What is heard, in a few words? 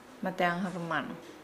Nukuoro; ten thousands